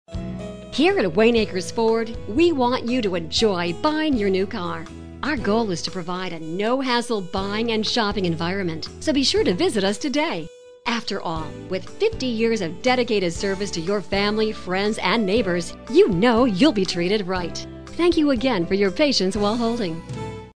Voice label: On Hold, messages on hold; auto bike; Wayne Akers Ford